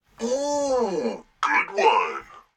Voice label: tutorial cyclist success; Blind Drive

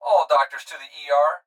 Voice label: Hospital Pa Voice; Blastwave

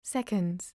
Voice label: "seconds", voice overs

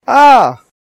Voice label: Case Yell; blindside

